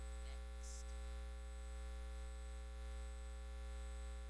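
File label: "ring", spoken word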